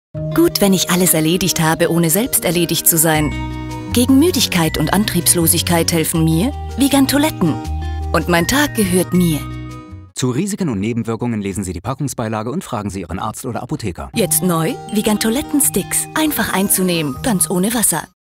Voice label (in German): Fremdtake Freundlich